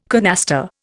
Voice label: "canaster", Indian